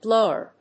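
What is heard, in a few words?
"blower", English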